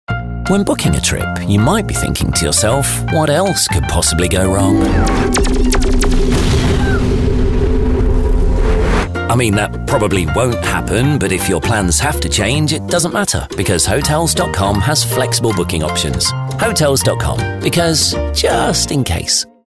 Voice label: Natural